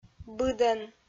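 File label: "быдӧн", Komi-Zyrian, female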